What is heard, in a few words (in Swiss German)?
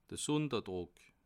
"sunderdruck", alsace